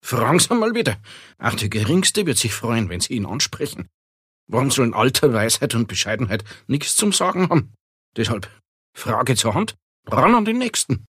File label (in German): fränkisch; beckstein